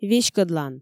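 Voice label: "веськӧдлан", Komi, female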